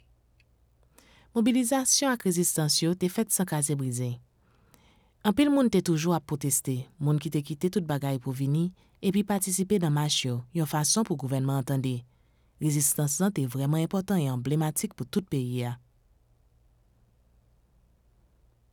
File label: Documentary